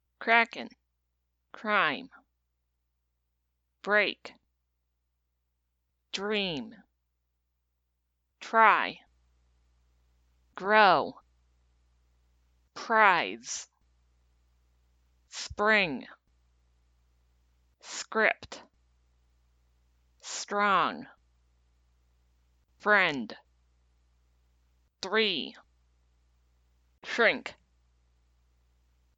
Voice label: r initial clusters, english phonetics